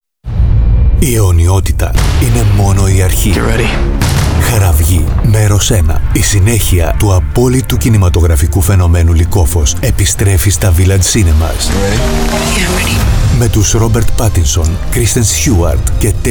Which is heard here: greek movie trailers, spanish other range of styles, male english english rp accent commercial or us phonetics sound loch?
greek movie trailers